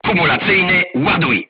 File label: voice message load HEAT, crew tanks polish